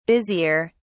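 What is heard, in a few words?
"busier", British English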